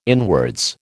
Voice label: "inwards", American

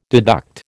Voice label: "deduct", American

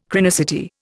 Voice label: "chronicity", Indian

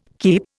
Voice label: "keep", Indian

